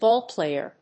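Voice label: "ballplayer", English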